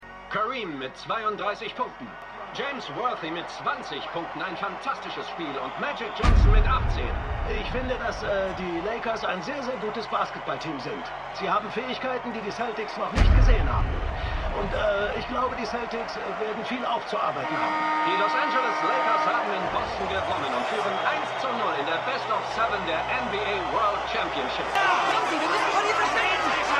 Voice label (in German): Kommentator; Winning Time